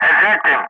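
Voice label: "ejecting", English; Ka-50; Wingman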